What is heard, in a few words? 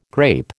"grape", American